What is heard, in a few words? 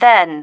"then", female